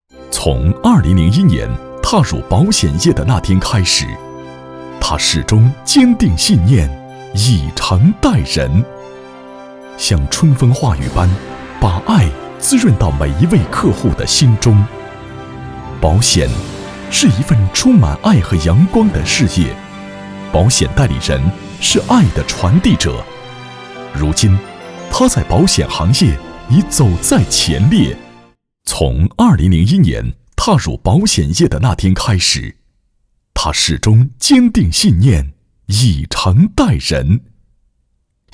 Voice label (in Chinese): 专题 颁奖 保险颁奖 大气, 男国